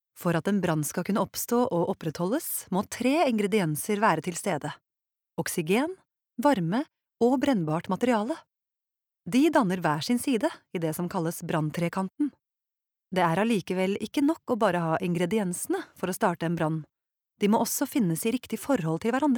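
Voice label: no elearning, Norwegian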